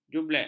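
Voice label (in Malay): "jublek", Malay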